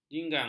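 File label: "jinggang", Malay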